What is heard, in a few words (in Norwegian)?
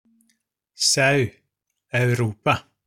diftong au eu